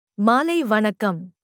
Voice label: Tamil Good evening; Greetings